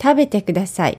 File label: "tabetekudasai", Japanese